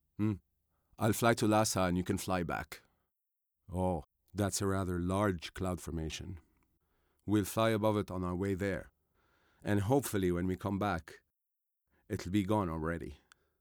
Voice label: English